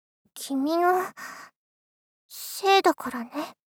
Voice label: 君の・・せいだからね・・・?少女×中音域

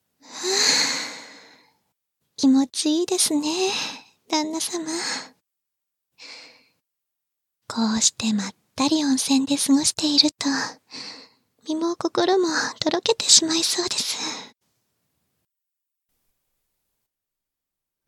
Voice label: オマケ 温泉でまったり（簡易モノラル編集 効果音なし）

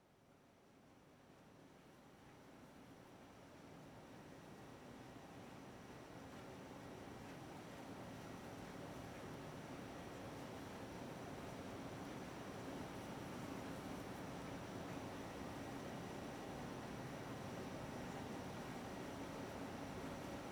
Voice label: ASMR 夏越祭の夜

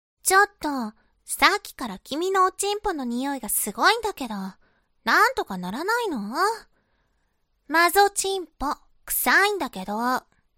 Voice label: 懲罰中音声, ASMR

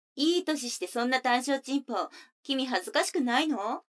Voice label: 女の子 台詞, ASMR

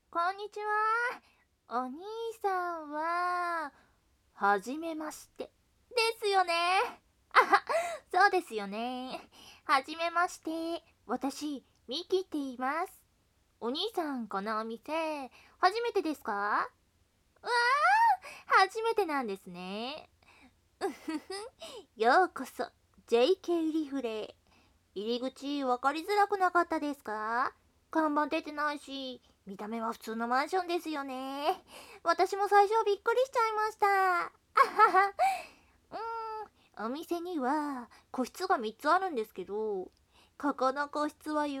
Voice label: 会話しながらハンドマッサージ; 音声のみ版; 効果音なしでお声のみじっくり楽しみたい方はこちら

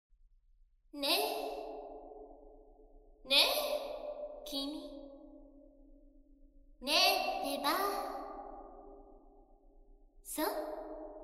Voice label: BGM効果音なし

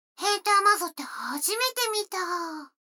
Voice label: 女の子台詞, ASMR

